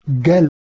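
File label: "ghel", Proto-Indo-European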